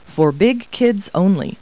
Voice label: people, kid